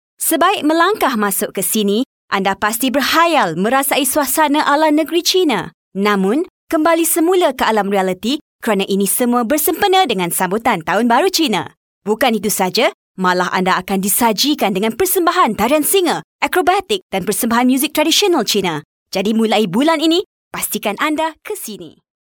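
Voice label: female, narration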